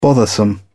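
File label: "bothersome", British English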